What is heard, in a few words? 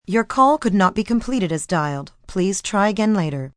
Not Completed, Telephone operator, female, recorded; VoiceBox